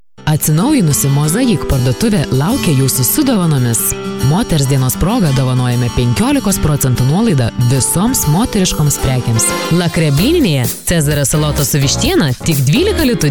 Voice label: Litvanca Kadin

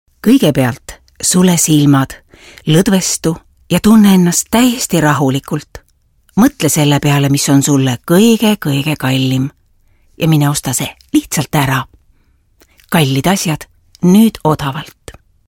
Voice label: Estonca Kadin

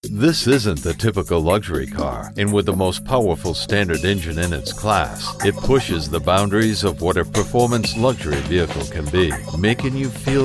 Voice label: Amerikan Ingilizcesi Erkek, Erkek Ses

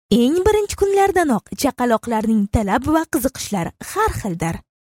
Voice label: Ozbekce Kadin, Kadın Ses

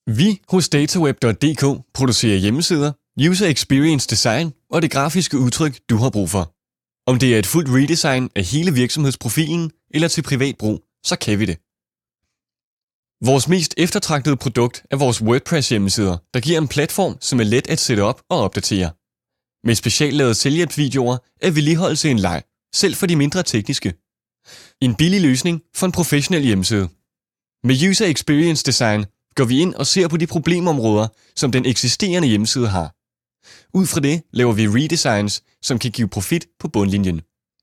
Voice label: Danca Erkek, seslendirme

